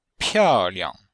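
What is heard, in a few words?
"piao4liang5", Chinese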